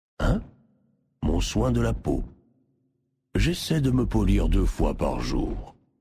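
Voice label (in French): French Male Blague; SoinPeau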